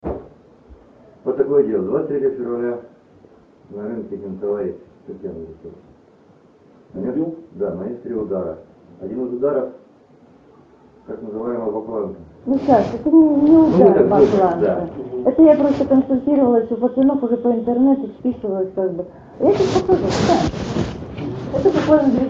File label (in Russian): audio razgovor; sekretnye zapisi s byvshego rynka ooo debyut goda